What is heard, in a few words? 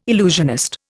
"illusionist", Indian